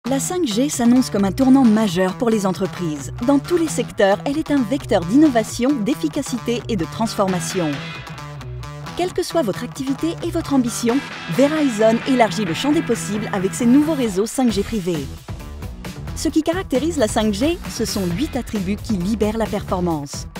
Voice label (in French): French; Verizon